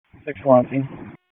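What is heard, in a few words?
Public Safety Tactical, p25; wake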